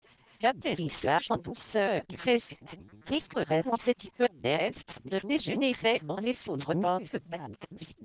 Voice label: babble; sympatex